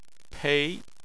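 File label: "pei" second tone, Chinese; Chinese Surnames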